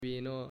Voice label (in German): "Vino" Spanisch